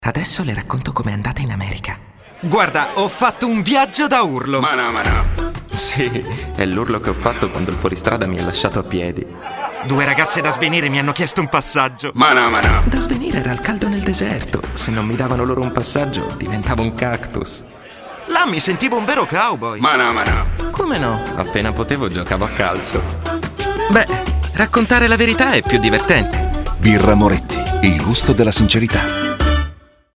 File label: radio; moretti coast